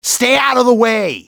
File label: stay out, english male; XBOX; civ